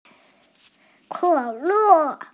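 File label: "ke3le4", Mandarin Chinese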